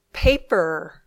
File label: "paper", English